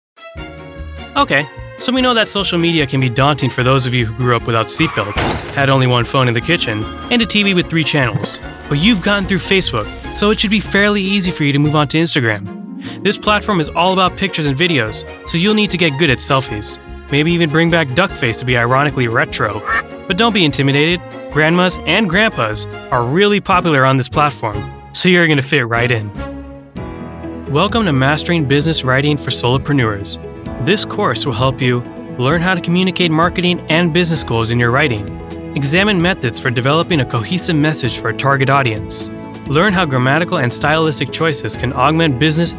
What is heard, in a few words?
Elearning